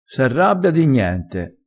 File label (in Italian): "prende r sale", crespinese